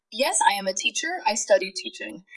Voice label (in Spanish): Voice Acting es